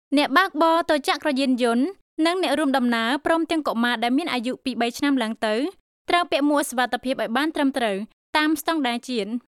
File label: khmer female